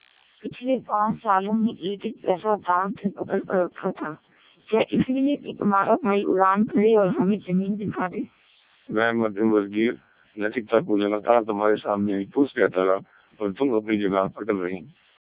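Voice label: Hindi speech, CODEC2 vocoder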